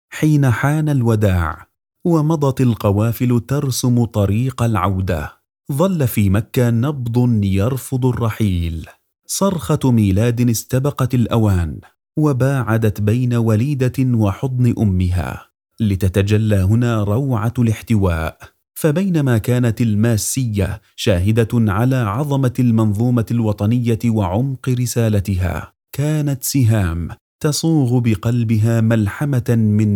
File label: arabic documentaries